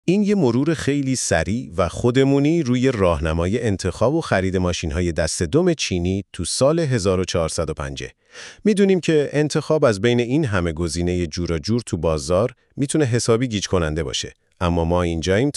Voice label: best used chinese car podcast